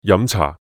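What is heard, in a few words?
"飲茶" Cantonese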